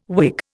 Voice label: "Whig", Indian